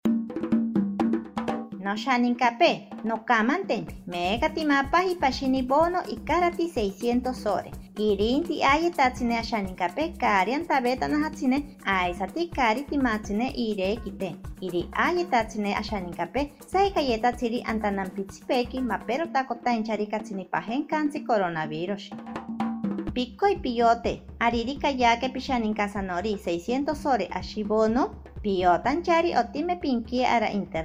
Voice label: ashaninka, spot de radio; precauciones al salir de mi comunidad

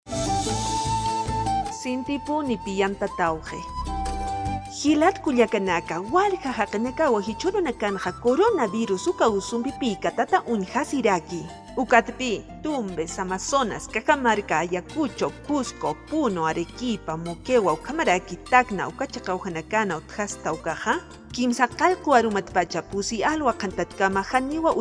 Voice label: aimara nuevas medidas, spot de radio; precauciones al salir de mi comunidad

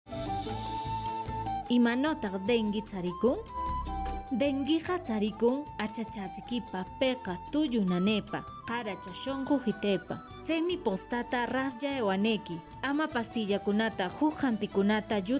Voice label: quechua ancash, spot de radio; sintomas del dengue; sospecha o confirmacion de contagio

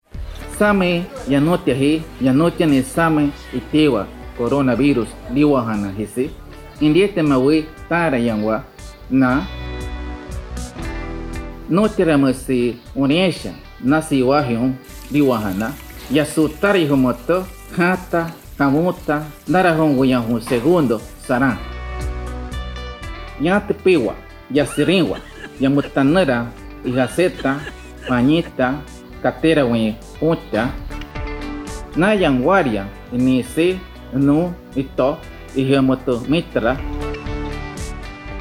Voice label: yagua, spot de radio; recomendaciones generales; medidas de prevencion

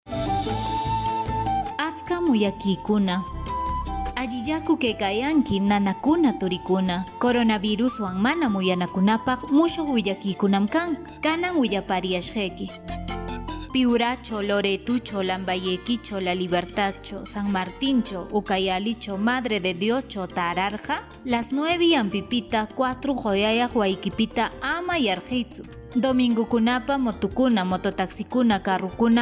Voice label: quechua ancash nuevas medidas, spot de radio; precauciones al salir de mi comunidad

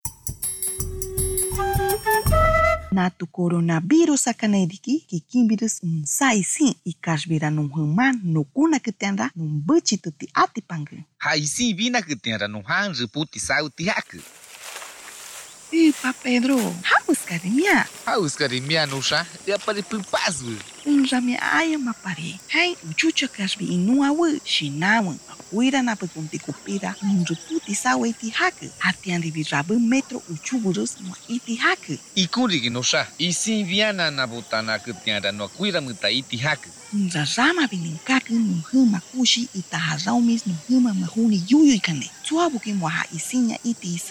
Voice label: shipibo konibo uso de mascarilla 2 voces, spot de radio; medidas de prevencion

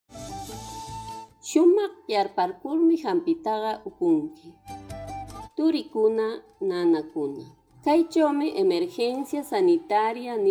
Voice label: quechua yaru, spot de radio; tomar medicamentos con precaucion; sospecha o confirmacion de contagio

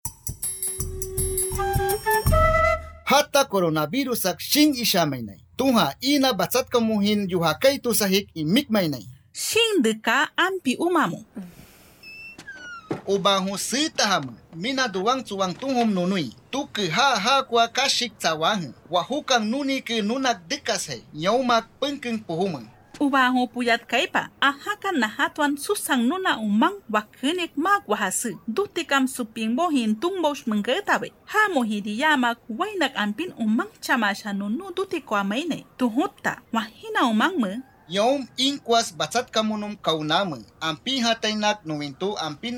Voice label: awajun 2 voces, spot de radio; sospecha o confirmacion de contagio; tomar medicamentos con precaucion

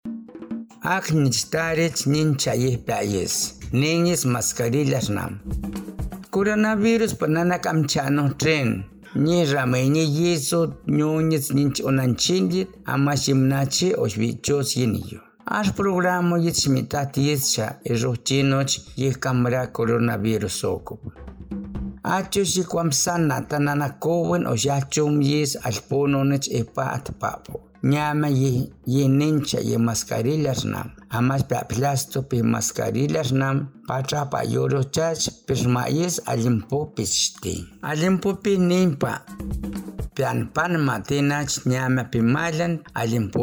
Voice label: yanesha uso de mascarilla 1 voz, spot de radio; medidas de prevencion

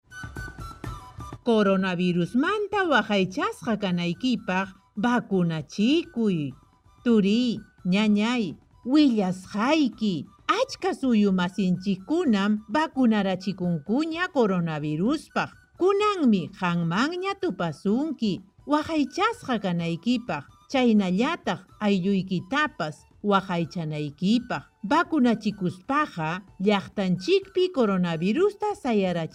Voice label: quechua chanka, spot de radio; vacunate para protegerte del coronavirus; vacuna contra el coronavirus

